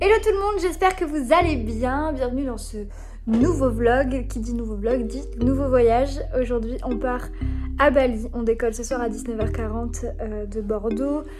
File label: ASMR